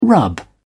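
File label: "rub off", British English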